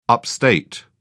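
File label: "upstate", British English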